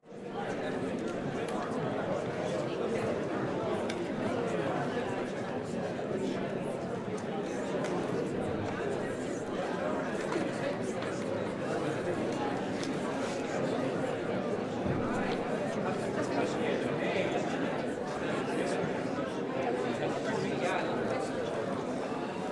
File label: Crowd talking in public area